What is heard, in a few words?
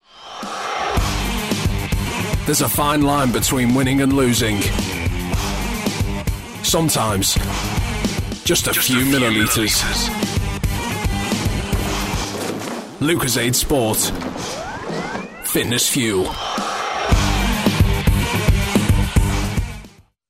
English UK